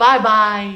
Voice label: "bye bye", voice

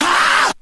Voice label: ow male; ooh ahh; ow ouch

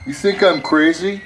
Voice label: "you think i'm crazy", male

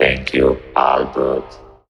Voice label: "thank you"; expletives

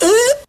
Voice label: "ooh" female

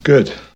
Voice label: "good", expletives

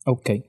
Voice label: "okay", expletives

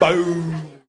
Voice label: "bow", Voice

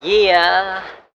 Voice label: "yeah" cartoon, voice

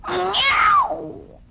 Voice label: "oh" pained; ooh ahh

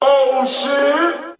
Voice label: oh shit echoey; Voice; expletives

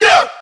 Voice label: "yo", group of men

